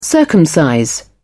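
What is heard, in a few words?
"circumcise", British English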